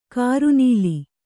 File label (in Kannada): "ಕರ್ನಲ್ಲು", Kannada